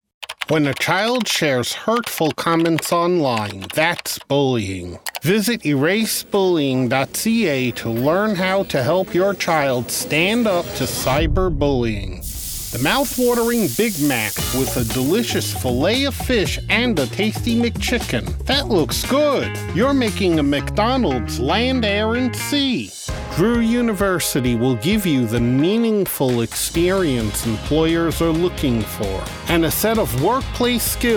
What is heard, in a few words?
Commercial